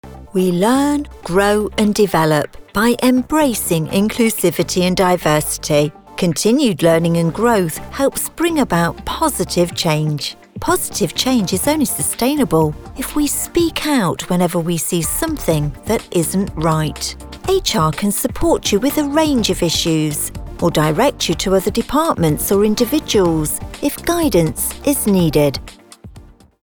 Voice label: East Midlands Accent Advisory